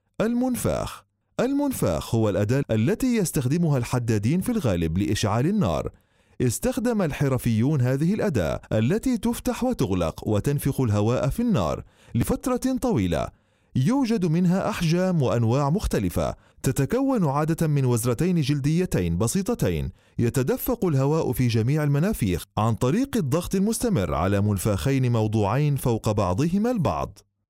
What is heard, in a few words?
arabic